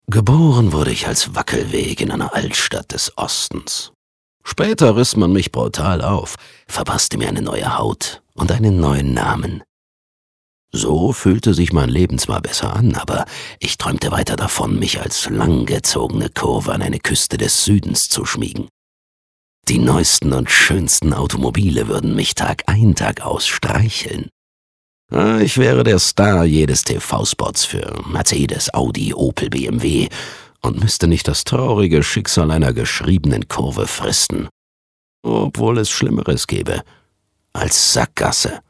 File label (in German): Schauspiel, Rauh